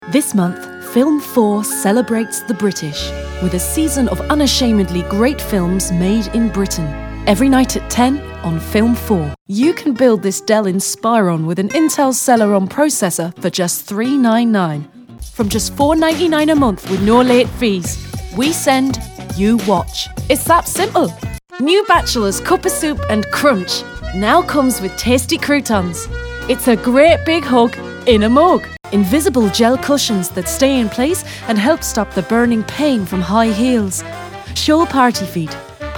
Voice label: Commercial Megamix